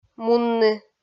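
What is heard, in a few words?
"мунны", Komi, female; kpv audio dict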